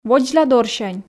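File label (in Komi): "водзладорсянь", Komi, female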